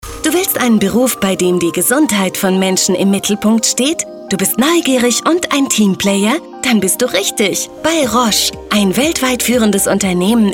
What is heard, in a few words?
recruiting spot young voice; roche diagnostics